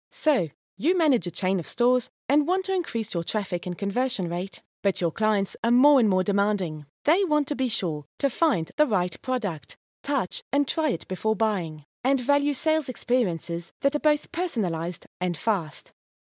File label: Promotion video